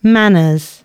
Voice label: announcements, female

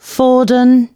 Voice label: announcements, female

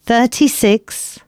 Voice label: announcements female

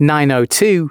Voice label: announcements, male

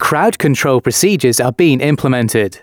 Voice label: announcements, male

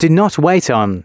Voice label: announcements, male

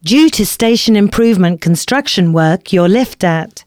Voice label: announcements, female

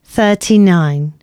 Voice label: announcements, female

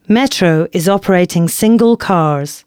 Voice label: announcements female

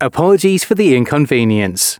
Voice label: announcements, male

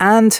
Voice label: announcements male

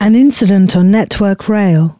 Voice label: announcements, female

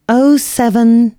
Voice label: announcements female

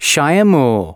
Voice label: announcements male

